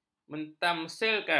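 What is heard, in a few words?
"mentamsilkan", Malay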